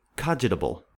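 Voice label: "cogitable", US English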